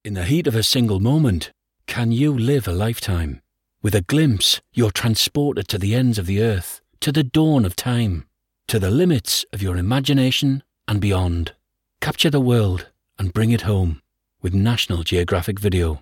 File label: north east accent, Newcastle, Sheffield and Derbyshire Voice Over